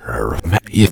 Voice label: the emporer, voiceover reversed